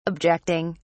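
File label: "objecting", British English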